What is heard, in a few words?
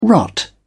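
"rot", British English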